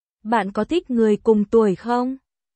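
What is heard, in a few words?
Vietnamese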